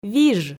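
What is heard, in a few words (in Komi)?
"виж", Komi, female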